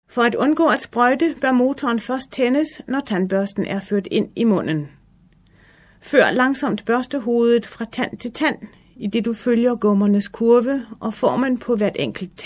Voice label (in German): dänisch, Sprecherin, telefonansagen